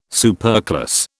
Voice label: "superclass", British